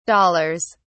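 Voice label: "dollars", British English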